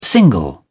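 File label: "single", British English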